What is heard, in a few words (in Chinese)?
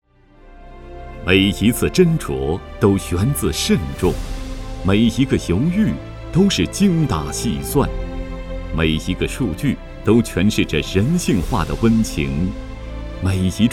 党政事业单位 专题片